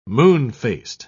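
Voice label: "moon-faced", British English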